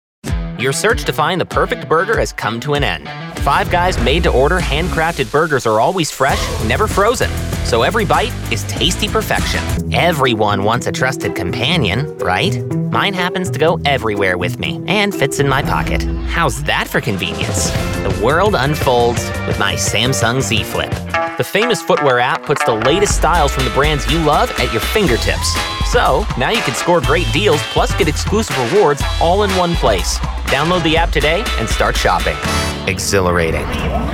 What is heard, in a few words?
Voice Actor Commercials